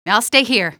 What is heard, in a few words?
i'll stay here, female; Half-Life 2